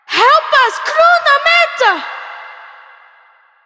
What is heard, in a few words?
Child